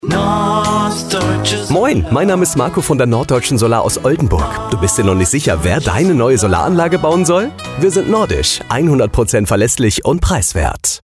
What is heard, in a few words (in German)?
Funkspot; Norddeutsche-Solar-und-Ingenieurgesellschaft-mbH